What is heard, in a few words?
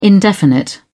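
"indefinite", British English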